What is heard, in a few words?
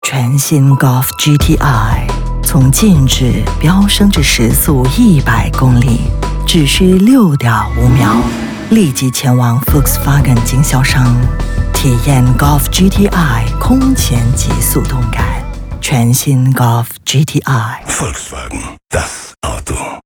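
Mandarin VO; Golf GTI; 20secs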